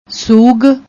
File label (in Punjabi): "sugh", Punjabi